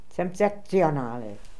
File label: "Semtzektzionally", pronounciations; Fourth Way; Beelzebub